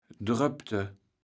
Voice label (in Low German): "dröpte", kaevels platt